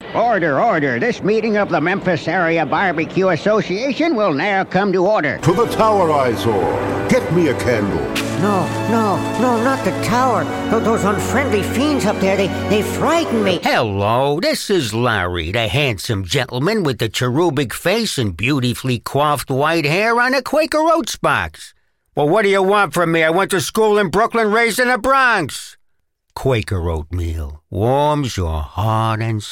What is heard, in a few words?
Character Voices